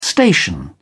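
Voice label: "station", British English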